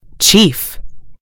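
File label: "chief", American English; gcompris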